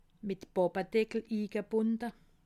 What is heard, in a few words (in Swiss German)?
"ingebunde", alsace